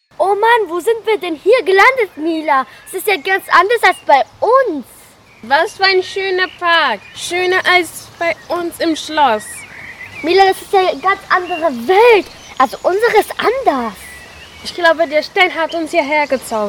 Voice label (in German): Hörspiel Ausschnitt